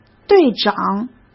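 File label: "队长", Mandarin Chinese; chinese-flashcards; hsk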